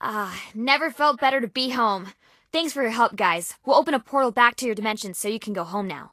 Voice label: English Voice End; Disney Phineas and Ferb The Transportinators of Doooom